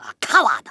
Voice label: "coward", Kamikaze; Worms Armageddon